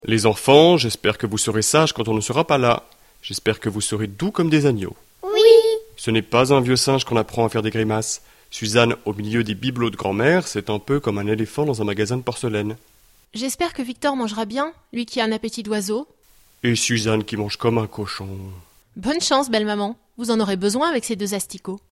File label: dialogue, French